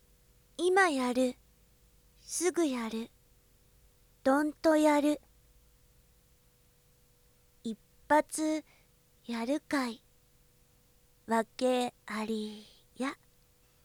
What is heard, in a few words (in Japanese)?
タイトルコール; 首輪ちゃん; 出稼ぎアイドル